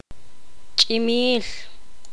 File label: poqomam; estrella